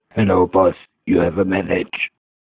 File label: Hello Boss funny